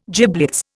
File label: "giblets", Indian